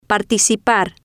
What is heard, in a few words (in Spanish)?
"participar", Spanish